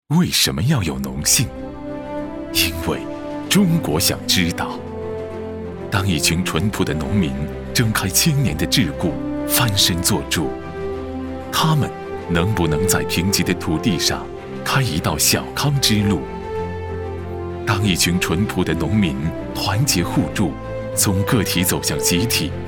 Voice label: 男 宣传片; 广西区农信社

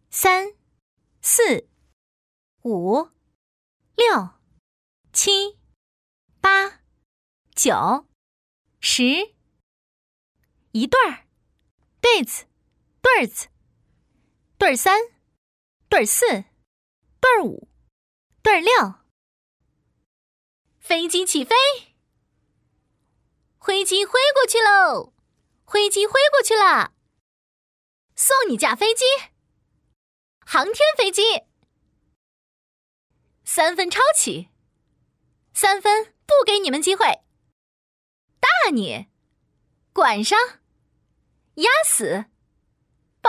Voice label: 女 游戏角色 斗地主

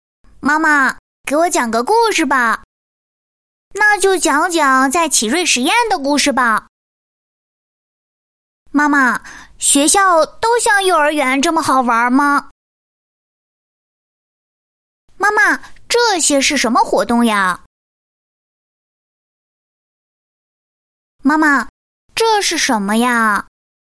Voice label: 女 男童; 启睿实验

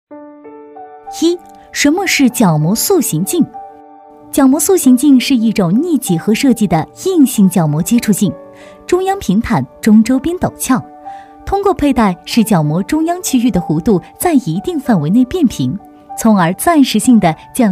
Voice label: 女, 介绍类, 轻松舒缓

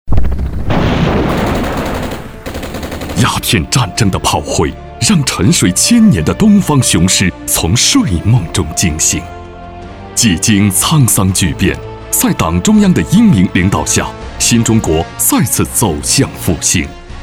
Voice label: 男 专题; 凯文凯勒

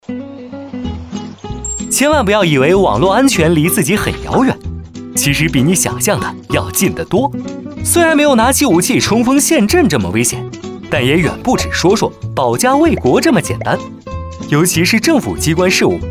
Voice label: 男 MG 网络安全解说员

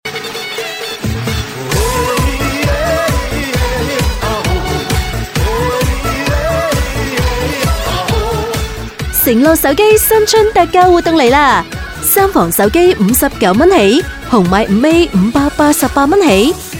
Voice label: 粤女 普通广告 手机; 诚乐手机

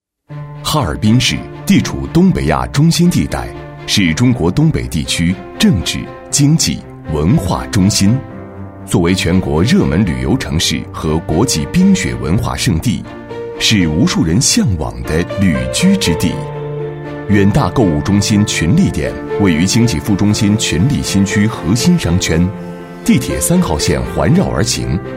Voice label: 男 专题 购物中心; 远大购物中心

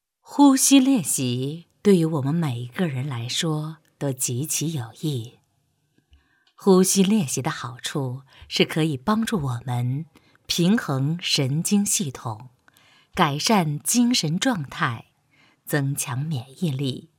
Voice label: 女 广播语 磁性温情有温度 瑜伽风格

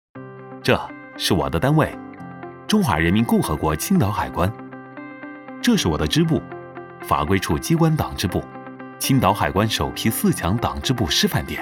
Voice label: 男,年轻自信 党建

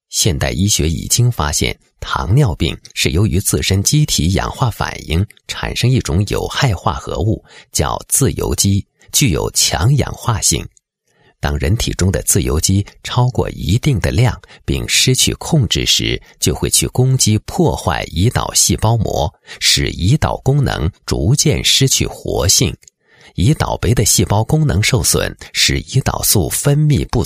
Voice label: 男, 国语男声配音; 走进科学

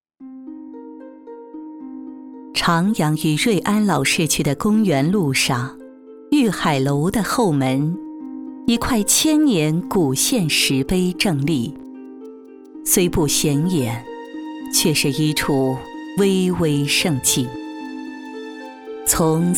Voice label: 女 纪录片; 千年记忆

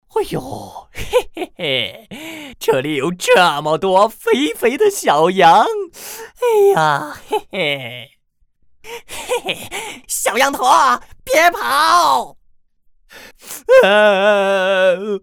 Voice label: 男 角色; 强尼狼对标灰太狼

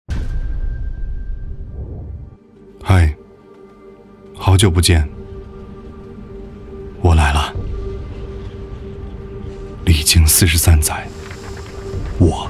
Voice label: 男 TVC广告; 奔驰; G63

